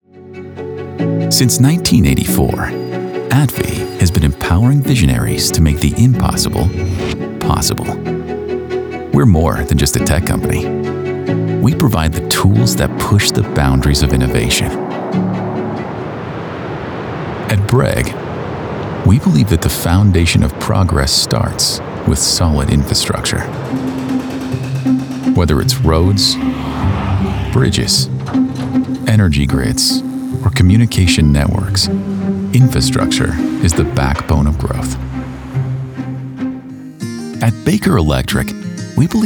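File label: Corporate About Us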